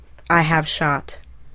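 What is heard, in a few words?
"I have shot", English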